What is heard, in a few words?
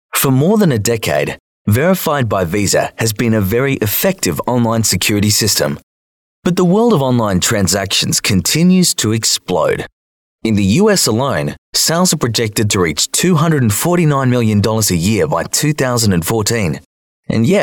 Narration